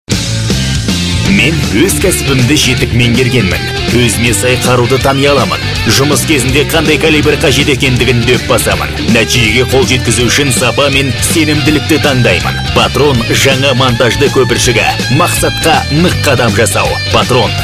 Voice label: Patron KZ